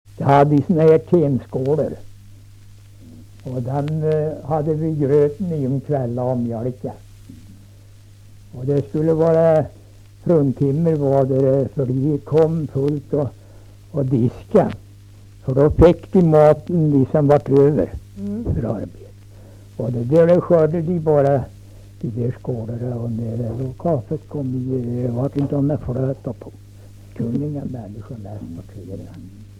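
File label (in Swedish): Lerbäck Närke, dialekter; Folkminnen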